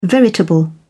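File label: "veritable", British English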